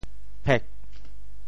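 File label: "phek4", Teochew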